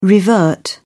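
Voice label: "revert", British English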